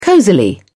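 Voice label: "cozily", British English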